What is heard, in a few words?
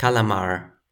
"kalamARR", Toki Pona